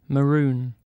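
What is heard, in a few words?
"maroon", British English; pronunciations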